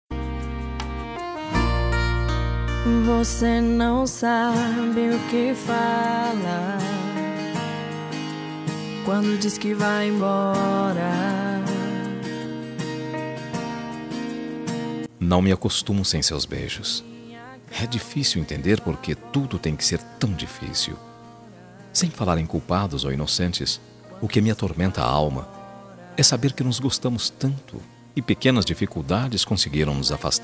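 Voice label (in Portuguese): RECONCILIACAO male, Telemensagem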